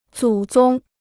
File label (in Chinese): "祖宗", Chinese